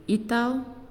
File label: "Moon", Naasioi